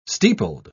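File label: "steepled", British English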